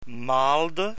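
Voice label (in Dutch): "maalde", Dutch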